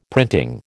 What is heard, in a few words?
"printing", American